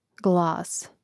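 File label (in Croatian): "glas", Croatian; mreznik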